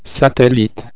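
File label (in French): "satellite", French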